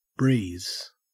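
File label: "breeze", Australian English; pronunciations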